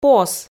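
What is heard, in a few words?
"пос", Komi, female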